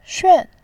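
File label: "xuan", Mandarin Chinese, tone 4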